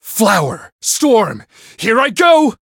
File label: variable chant; shigure